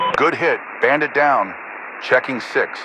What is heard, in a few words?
Radio pilot Kill Air